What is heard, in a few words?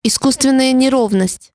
warning pol; MioMap C220; Navitel